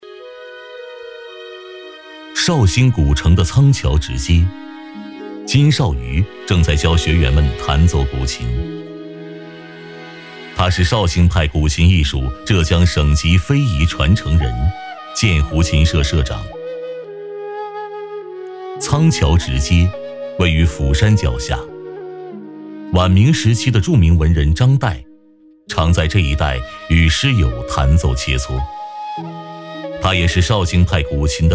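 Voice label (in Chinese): 男【纪录片】; 绍兴琴派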